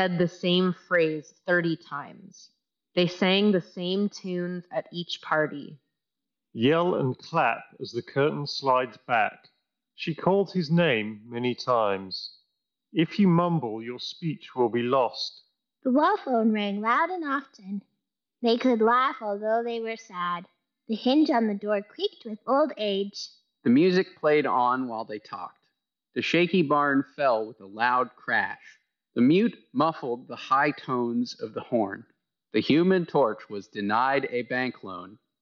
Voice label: Reverb microphone sample; Bose QC Ultra Headphones